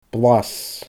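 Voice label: "blas", Scottish Gaelic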